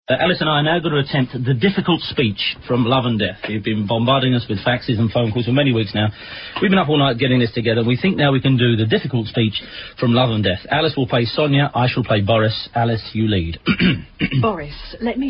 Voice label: recite